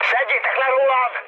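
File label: Hungarian aircraft damaged mood high, speech; War Thunder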